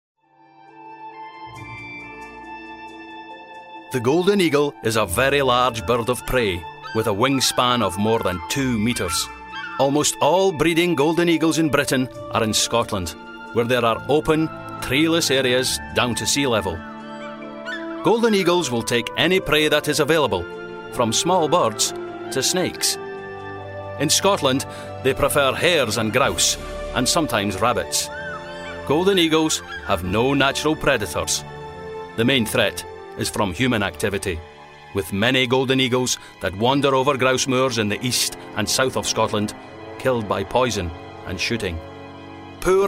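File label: documentary, male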